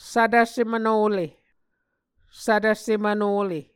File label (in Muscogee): "svtv semvnole", Muscogee